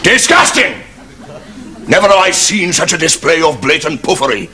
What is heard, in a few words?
disgusting